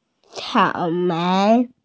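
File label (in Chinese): "cao3mei2", Mandarin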